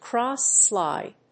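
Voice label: "cross-slide", English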